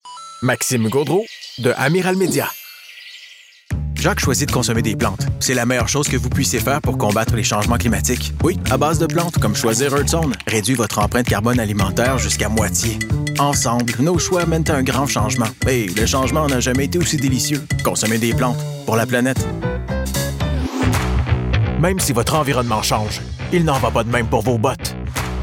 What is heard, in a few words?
Voice Demo Advertising FR CA